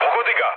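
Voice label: Serbian attack position mood low, speech; War Thunder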